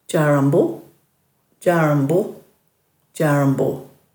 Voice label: "djarambu", Dhurga

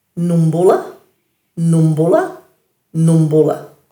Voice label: "numbula-", Dhurga

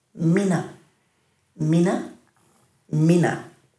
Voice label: "mina", Dhurga

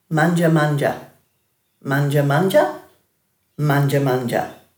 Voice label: "manjamanja", Dhurga

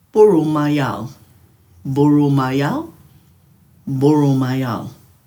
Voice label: "burumayal", Dhurga

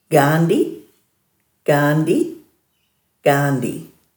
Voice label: "gaandi", Dhurga

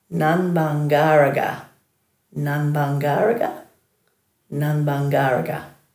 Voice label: "nanbanggara-ga", Dhurga

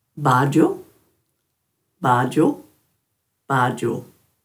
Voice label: "bardju", Dhurga

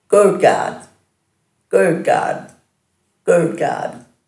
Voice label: "gurdgard", Dhurga

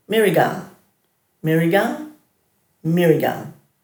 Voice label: "mirigang", Dhurga

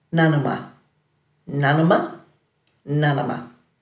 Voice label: "nanuma-", Dhurga; know

